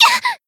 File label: damaged, jp; maturika